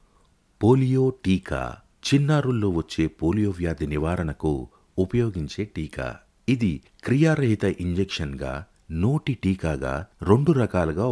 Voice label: Polio drops Telugu Narration

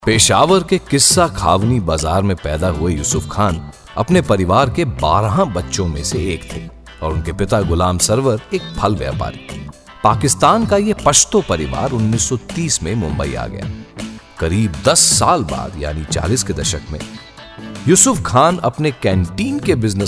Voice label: narration, Hindi

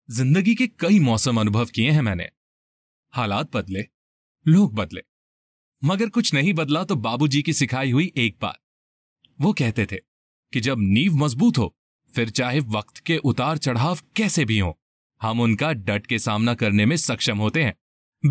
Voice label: Radio Hindi

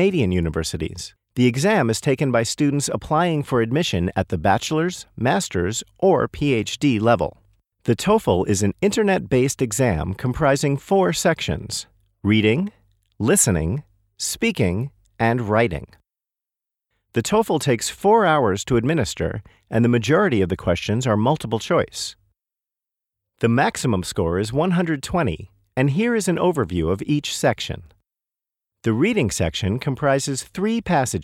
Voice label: e-learn, US, american english

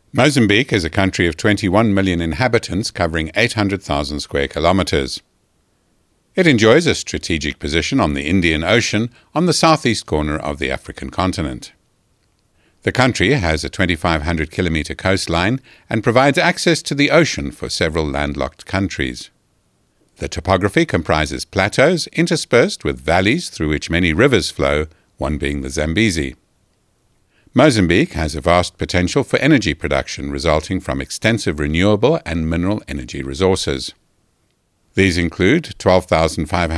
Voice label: EDM VO, US american english

